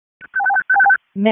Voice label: EMS ALERT; wake

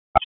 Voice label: Police Northwest District Tac 1; Raleigh; wake

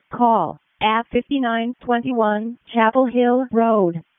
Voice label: WC EMS ALERT, p25

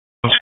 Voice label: Raleigh Police Southwest District Tac, p25

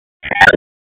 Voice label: Raleigh Police Northeast District Tac, p25

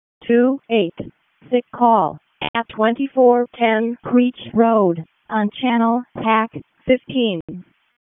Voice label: EMS ALERT; wake; p25

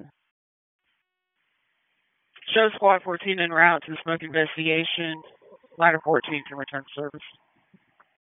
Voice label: RFD HQ DISP, P25 recordings

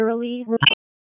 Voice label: Police Spec Ops Tac 4, p25; Raleigh; wake

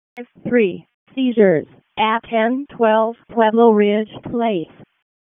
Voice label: EMS ALERT, p25; wake

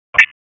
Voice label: Police Northeast District Disp, p25

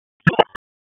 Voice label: Police Tac, p25; Raleigh; Southeast District Tac 1; wake

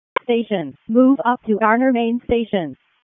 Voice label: EMS Alert; wake; p25

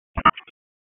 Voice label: Police North District Tac 1, p25; Raleigh; Wake

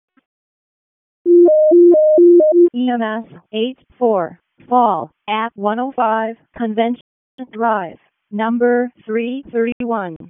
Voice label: WC EMS ALERT, p25; wake

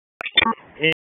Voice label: PS TAC 29, P25 recordings

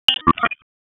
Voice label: Raleigh Police Northeast District Tac, p25; wake

